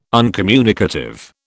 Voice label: "uncommunicative", British

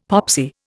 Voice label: "popsy", Indian; Dictionary

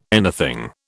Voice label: "anything", British

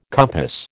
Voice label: "compass", American

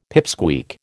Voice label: "pipsqueak", American

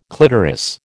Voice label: "clitoris", American

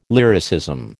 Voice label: "lyricism", American

